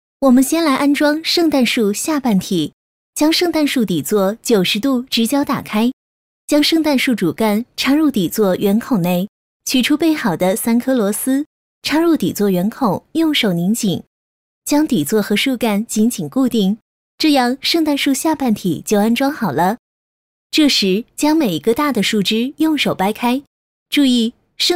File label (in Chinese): 女 多媒体 产品演示 圣诞树